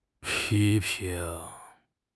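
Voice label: "pi1 ping2", Chinese; HSK4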